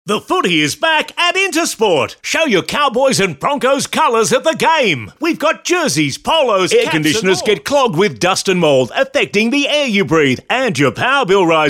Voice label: Hard Sell